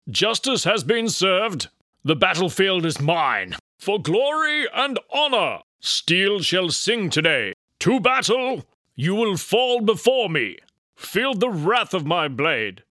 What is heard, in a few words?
Regal Chief, Video Games / British Battle Leader, male voice